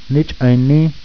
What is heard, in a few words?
"Neettch-ah nee", Chipewyan